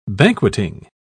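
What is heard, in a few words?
"banqueting", British English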